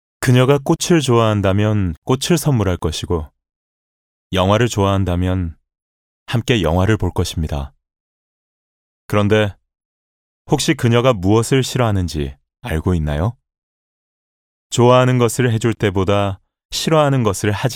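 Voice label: Korean, male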